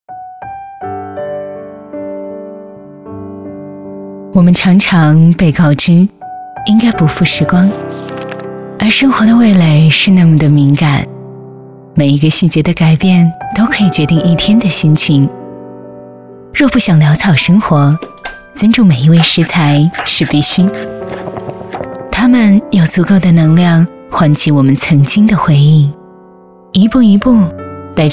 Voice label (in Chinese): 女76 专题; 美好食光